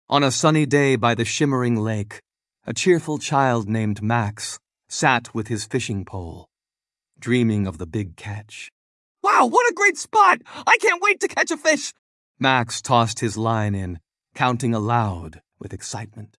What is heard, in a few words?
male US story; Fish Story